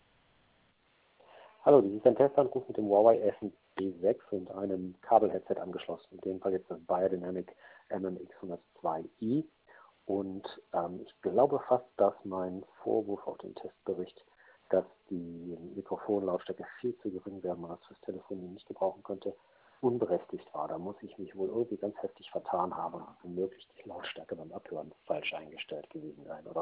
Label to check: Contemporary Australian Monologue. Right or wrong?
Wrong